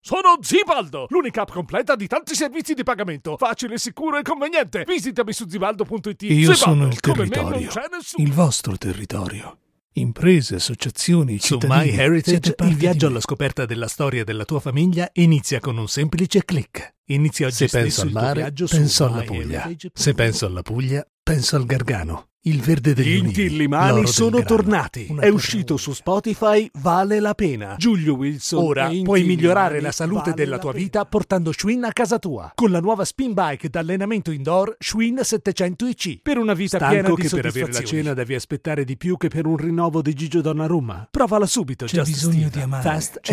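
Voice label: Italian, Commercial; GoLocalise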